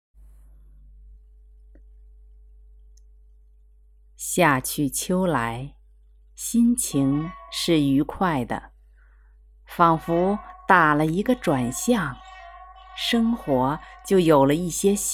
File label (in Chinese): 纪录片旁白